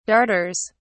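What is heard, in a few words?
"darters", English, British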